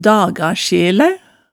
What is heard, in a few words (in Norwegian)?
"dagasjelæ", hemsedalsmaalet tale